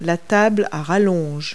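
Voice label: "extension table", English words; Multi-Lingual Talking Picture Dictionary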